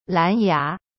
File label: "lan2ya2", Chinese; cyberchinese